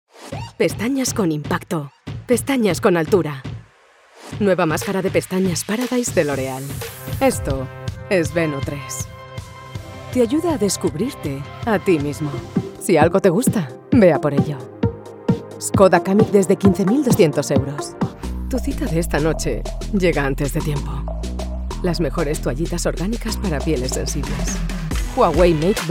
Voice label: ES Commercial soft; Voz femenina adulta, versátil y de tono medio-grave